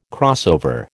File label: "crossover", American